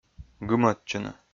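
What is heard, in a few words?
"гымӧдчыны", Komi, male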